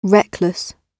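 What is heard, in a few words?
"reckless", British English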